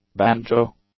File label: "banjo", English; nouns